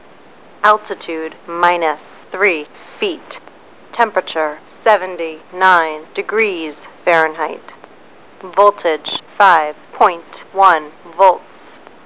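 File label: msg en f, English, female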